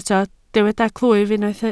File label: "clwyf", Welsh